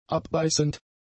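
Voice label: "abbeißend", German; adjectives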